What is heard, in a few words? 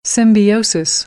"symbiosis", American, English; oʊ - əʊ